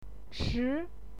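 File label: "chi", Mandarin Chinese, second tone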